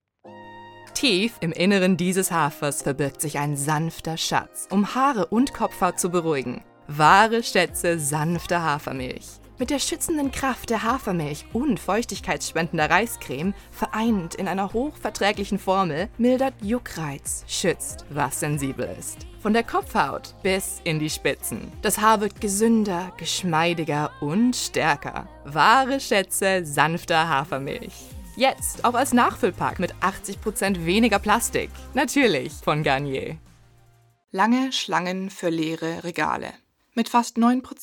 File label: German